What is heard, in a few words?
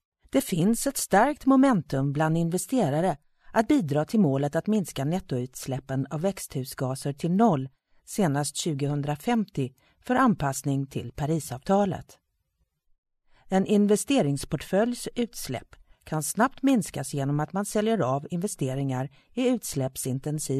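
Swedish Sweden female narrative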